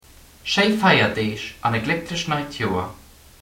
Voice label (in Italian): lussemburghese